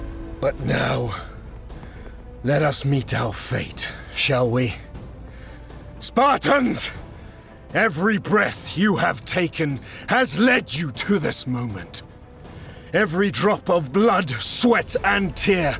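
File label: Computer Games, Male. South African.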